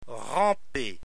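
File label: "ramper", French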